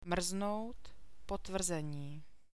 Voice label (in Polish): rz przyklady, czeski alfabet; mrznout, potvrzení; marznąć, potwierdzenie